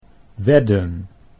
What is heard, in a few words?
"wedden", Dutch